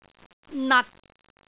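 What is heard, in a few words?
"nat6", Cantonese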